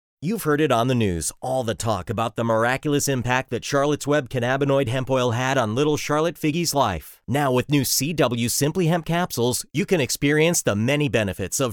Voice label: Radio Spot; Sirius XM